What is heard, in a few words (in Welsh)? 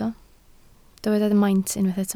"maint", Welsh